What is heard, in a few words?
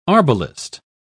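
"arbalist", British English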